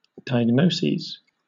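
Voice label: "diagnoses", British English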